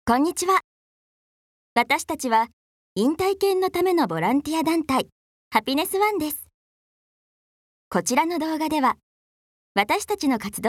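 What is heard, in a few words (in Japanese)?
ナレ, ボイスサンプル; アニメ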